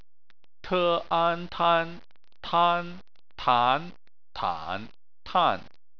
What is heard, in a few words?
"tan", chinese